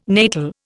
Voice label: "natal", Indian

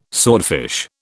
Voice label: "swordfish", British

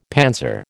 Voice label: "panzer", American

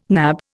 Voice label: "nab", Indian

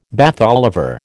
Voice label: "Bath Oliver", American

